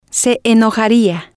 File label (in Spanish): se enojaría, Spanish